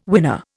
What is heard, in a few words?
"winner", Indian